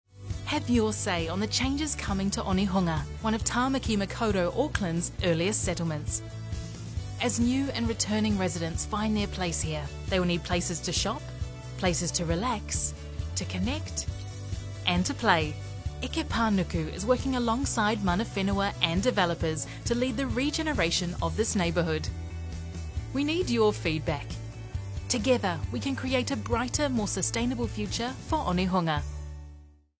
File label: english new zealand explainer videos